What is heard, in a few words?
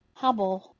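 "h2ebol", Proto-Indo-European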